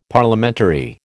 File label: "Parliamentary", American